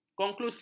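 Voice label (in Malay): "konklusi", Malay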